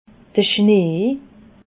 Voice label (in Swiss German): "schnee", alsace